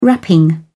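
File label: "wrapping", English, British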